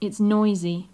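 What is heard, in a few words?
"its noisy", British English; Шумно!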